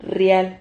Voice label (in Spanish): "rriad", silabas